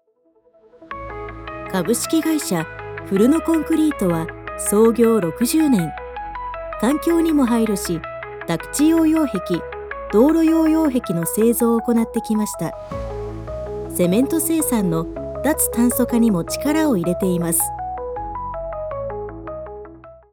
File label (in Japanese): 企業PV, 女性ナレーター